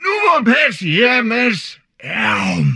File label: humanoid portrait male; Stellaris; humanoids